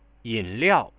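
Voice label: spoken sound "yinliao", Chinese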